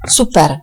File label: "super", cs; gcompris